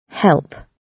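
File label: "help", British English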